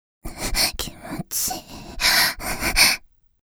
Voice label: 「うう、きもちいい、はうっ！」（ささやき）; セクシーパーツ（エクササイズ間）

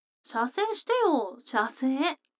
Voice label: 女の子 台詞

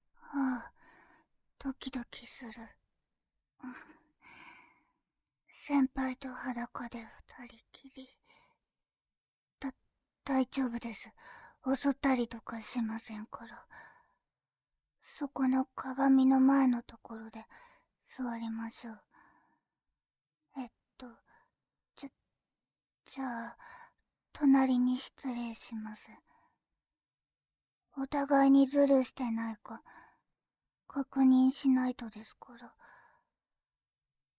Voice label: 一緒に寸止めオナニー 右耳

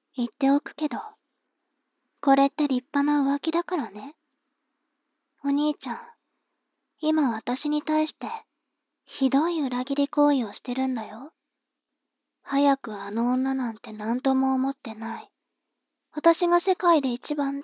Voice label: 妹 台詞

